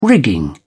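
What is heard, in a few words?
"rigging", British English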